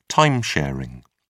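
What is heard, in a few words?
"time sharing", British English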